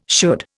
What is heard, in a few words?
"should", Indian